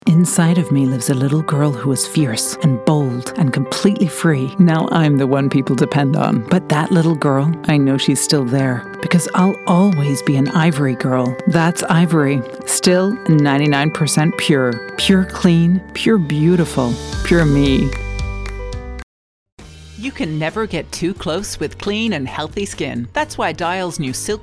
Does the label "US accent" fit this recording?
Yes